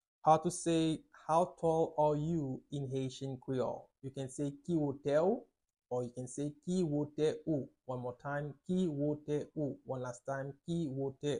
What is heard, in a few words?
How tall are you in Haitian Creole Ki wote ou pronunciation